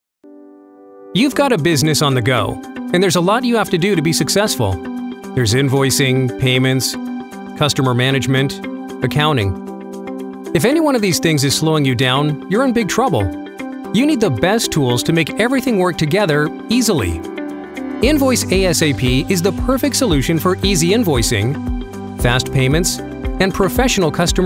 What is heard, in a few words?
casual explainer voice over